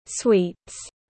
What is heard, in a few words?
"sweets", pronunciation